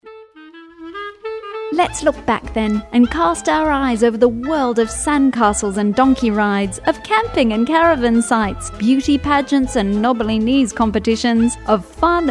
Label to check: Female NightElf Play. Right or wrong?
Wrong